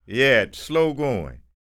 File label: STORY, SPOKEN